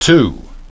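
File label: "too", English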